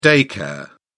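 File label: "day care", English, British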